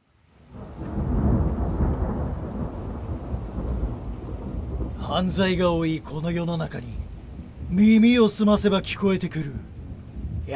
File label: trailer, voices